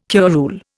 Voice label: "curule", Indian

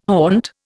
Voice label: "haunt", Indian

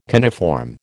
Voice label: "coniform", American

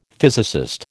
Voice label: "physicist", American

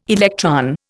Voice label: "electron", Indian

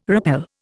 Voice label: "rappel", Indian

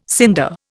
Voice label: "cinder", Indian

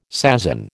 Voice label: "sasin", American

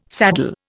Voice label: "saddle", Indian

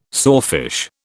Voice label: "sawfish", British

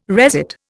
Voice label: "resit", Indian

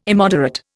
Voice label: "immoderate", Indian

